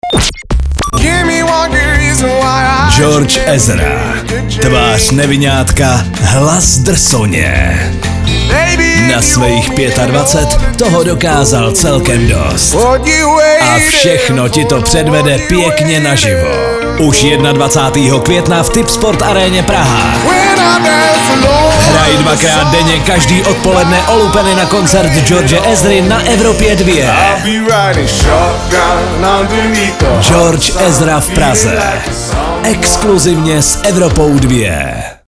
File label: promo; evropa2